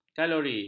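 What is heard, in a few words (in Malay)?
"kalori", Malay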